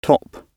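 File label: "top", British English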